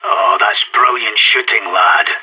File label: good shooting, radio; SAS